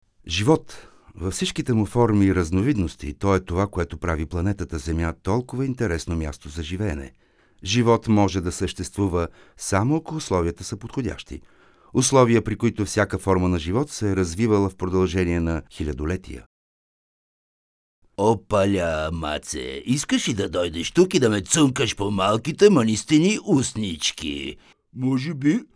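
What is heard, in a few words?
Bulgarian, male, narration; voice demos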